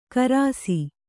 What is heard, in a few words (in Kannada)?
"ಖರ್ಸಿ", Kannada